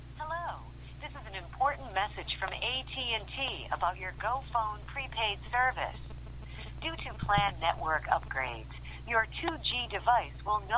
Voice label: att 2g autocall; att 2g sunset